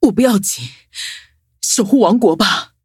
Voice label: defeat, zh-hant; cookie run